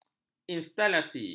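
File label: "instalasi", Malay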